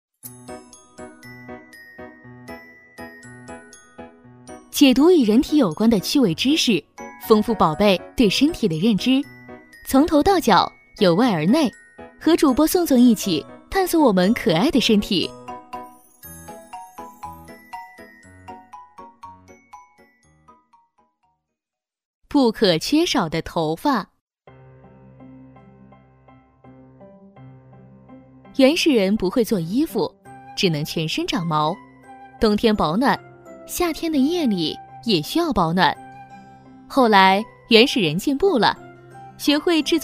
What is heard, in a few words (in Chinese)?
女 绘本; 素人自然; 不可缺少的头发